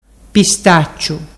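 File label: "pistacciu", Ligurian dialect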